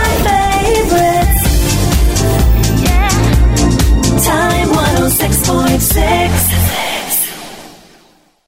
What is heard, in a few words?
Time Imaging